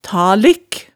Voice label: "talik", hemsedalsmaalet tale

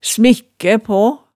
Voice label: "smikkæ paa", hemsedalsmaalet, tale

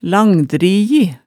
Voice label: "langdriji", hemsedalsmaalet tale

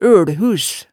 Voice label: "ølhus", hemsedalsmaalet tale

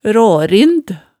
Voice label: "raarind", Hemsedal

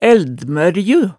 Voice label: "ældmørju", hemsedalsmaalet tale

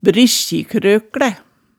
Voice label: "briskji-krøklæ", hemsedalsmaalet tale

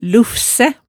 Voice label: "luffsæ", hemsedalsmaalet, tale

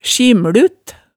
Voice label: "sjimmlut", hemsedalsmaalet

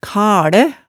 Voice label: "kalæ", hemsedalsmaalet tale

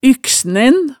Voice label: "yksnen", hemsedalsmaalet, tale

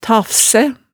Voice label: "tafsæ", hemsedalsmaalet tale

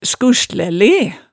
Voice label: "skuhslæ-le", hemsedalsmaalet

